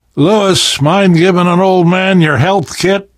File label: player suggest health specific, left4dead survivor voice namvet